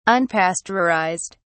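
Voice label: "unpasteurized", British English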